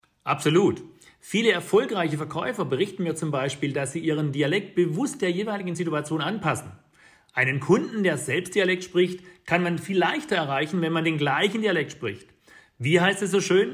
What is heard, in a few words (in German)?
dialekt